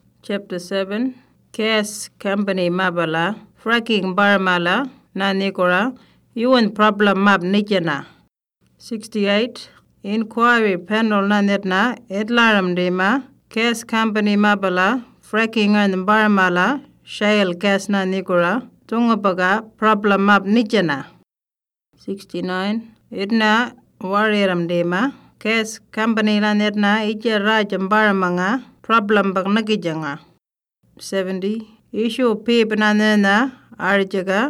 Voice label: Western Arrarnta; Fracking